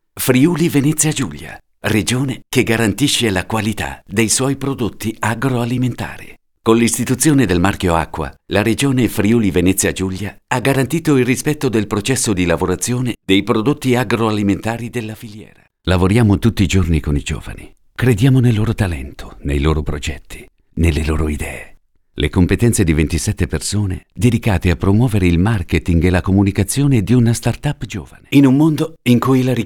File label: dry mix